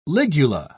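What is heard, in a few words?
"ligula", English, British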